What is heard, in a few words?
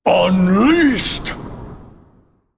Male Elemental Attack